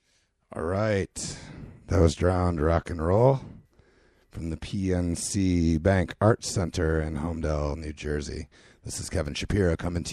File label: radio banter; Super Ball IX